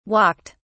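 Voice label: "walked", British English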